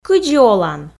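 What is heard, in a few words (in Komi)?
кыдзи олан, Komi, female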